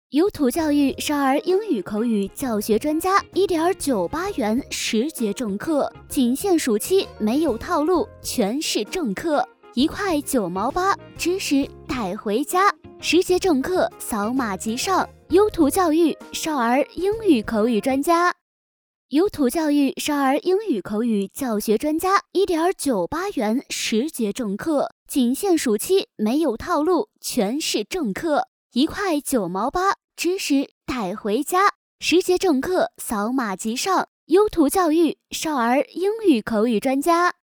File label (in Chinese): 广告